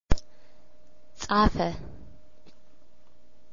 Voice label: "safa", amharico

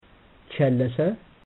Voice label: "challasa", Amharic

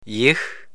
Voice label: "jih", amharico

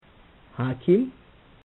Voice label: "hakim", amharico

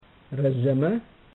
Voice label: "razzama", Amharic

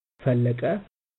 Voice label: "fallaqa", Amharic

